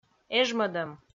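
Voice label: "эжмӧдӧм", Komi, female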